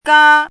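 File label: "gā", Mandarin Chinese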